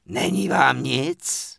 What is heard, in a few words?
Witch Doctor Pissed; wowpreklad; czwow